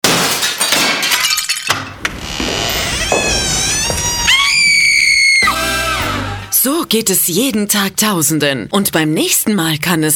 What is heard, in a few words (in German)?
werbespot radioton